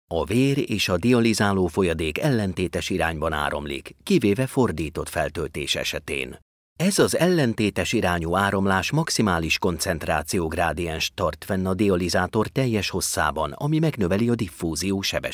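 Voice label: hungarian medical narration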